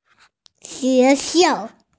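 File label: "school", Mandarin, hearing-impaired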